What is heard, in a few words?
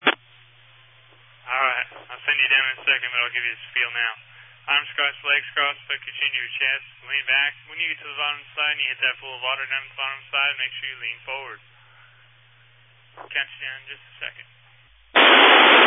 Family Radio Service (FRS)